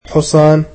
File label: "horse", egyptian